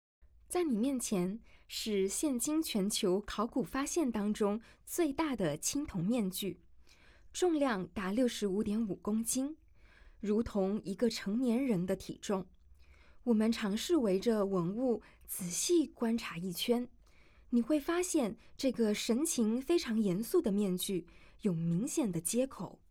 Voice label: Mandarin, audio-guide; gazing at sanxingdui